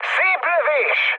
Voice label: player killed enemy, mood high, speech hun